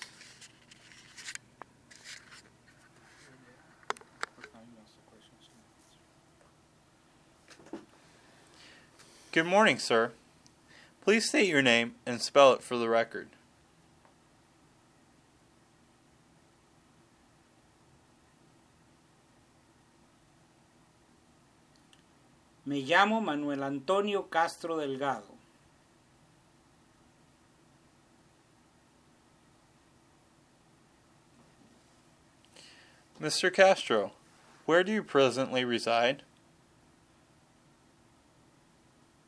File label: Consecutive Accident Spanish